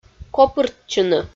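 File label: "копыртчыны", Komi, female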